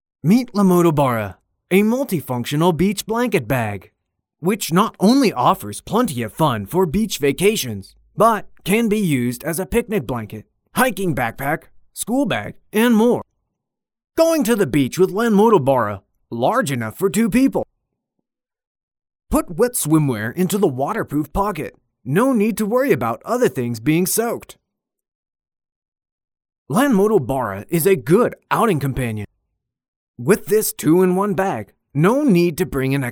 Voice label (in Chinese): 外籍配音美式男, 产品广告